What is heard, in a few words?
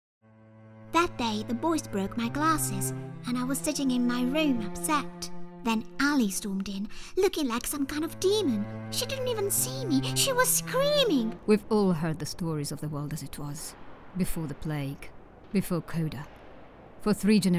GAMES ACCENTED